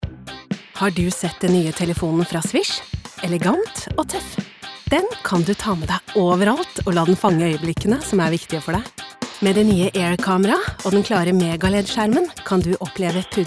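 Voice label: Norwegian fresh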